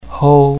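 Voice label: "hou1", Mandarin Chinese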